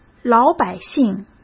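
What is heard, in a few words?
"老百姓", Mandarin Chinese; chinese flashcards; hsk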